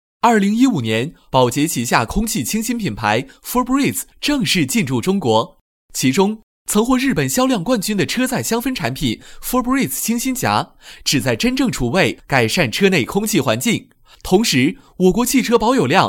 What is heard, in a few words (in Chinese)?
男 报奖 年轻激情; Febreze传播报告